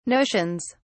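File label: "notions", British English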